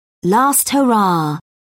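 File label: "last hurrah", British English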